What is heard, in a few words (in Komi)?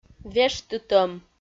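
"вештытӧм", Komi, female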